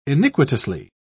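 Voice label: "iniquitously", British English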